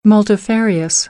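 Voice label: "multifarious", American English; AmericanBritish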